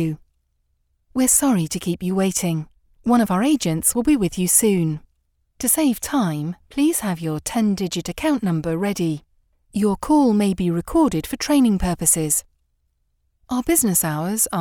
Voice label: Telephone On Hold